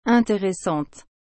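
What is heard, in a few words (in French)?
"interessante", French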